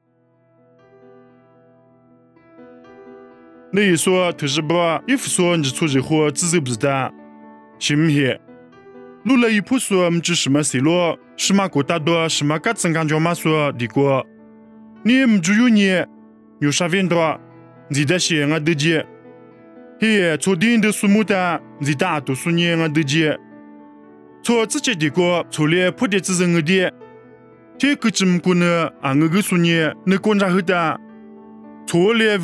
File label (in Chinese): 凉山彝语; 地中海以弗所; nuosu